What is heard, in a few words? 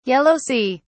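"Yellow sea", British English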